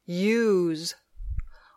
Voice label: "use", English